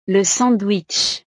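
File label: "le sandwich", French; snacks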